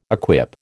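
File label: "equip", American English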